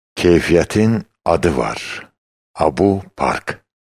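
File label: Azerbaijani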